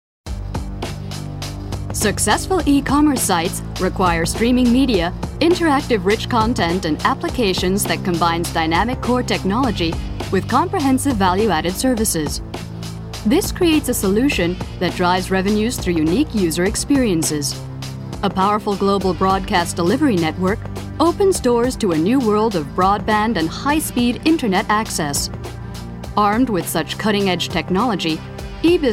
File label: usa woman; MediaVoix; vice sample